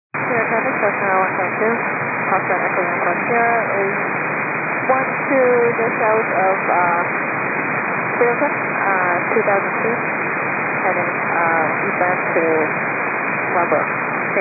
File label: 122 925MHz, scanner radio